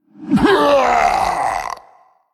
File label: Male Qiraji Death